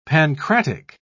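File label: "pancratic", British English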